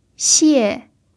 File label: "xie4", Mandarin pinyin